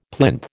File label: "plinth", American